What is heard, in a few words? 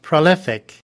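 "prolific", US English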